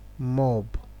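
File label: "mob", Nigerian Pidgin, male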